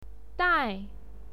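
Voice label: "dai", Mandarin Chinese, fourth tone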